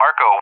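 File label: "Arco", English, USA; CallSign; DCS; Allied Flight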